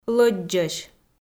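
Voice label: "лӧддзӧсь", Komi, female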